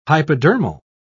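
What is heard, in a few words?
"hypodermal", British English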